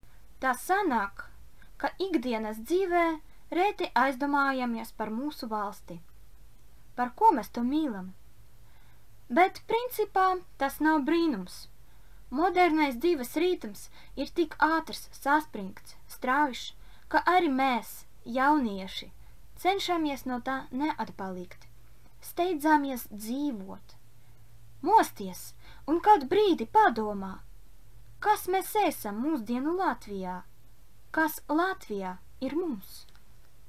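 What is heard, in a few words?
Latvian, General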